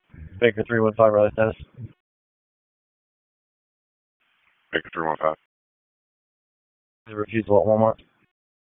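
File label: Police District Dispatch; Raleigh; Northeast; p25; wake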